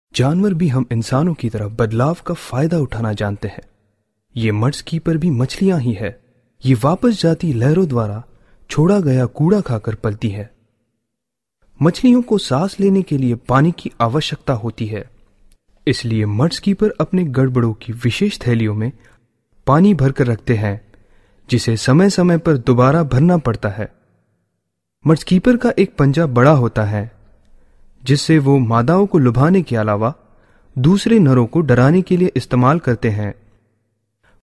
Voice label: male voice